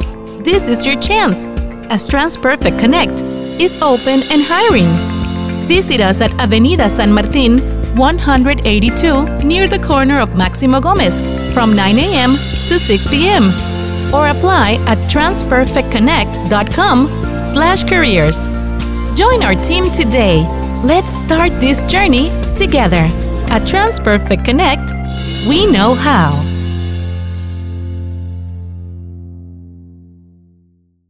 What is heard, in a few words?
english promos